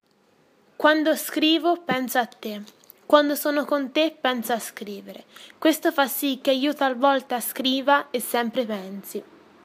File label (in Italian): traduzione audio francese